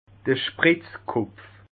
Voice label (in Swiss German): "spritzkopf", alsace